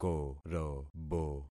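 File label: "korobo", concatenated syllables; Affixing experiment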